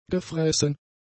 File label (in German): "gefressen", German; adjectives